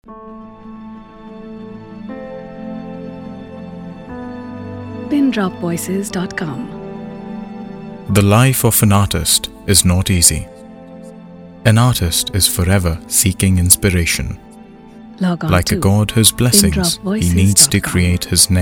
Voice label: English